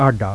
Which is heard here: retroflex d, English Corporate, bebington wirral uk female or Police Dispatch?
retroflex d